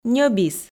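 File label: "ньӧбис", Komi, female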